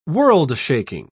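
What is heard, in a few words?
"world-shaking", British English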